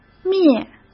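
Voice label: "灭", Mandarin Chinese; chinese flashcards; hsk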